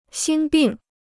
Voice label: "心病", Chinese